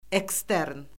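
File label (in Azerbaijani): "ekstern", Azerbaijani; Orfoqrafiya Lüğəti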